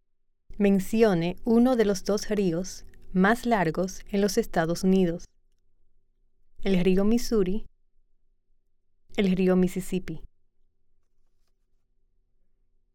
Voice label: Spanish